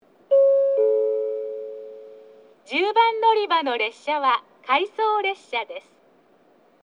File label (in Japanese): 10番のりば停車中放送（回送列車）; リレー特急; takeo onsen